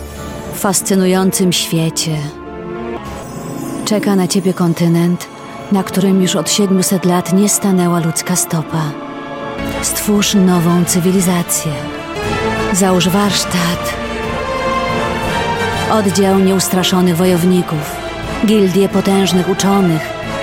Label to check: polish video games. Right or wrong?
Right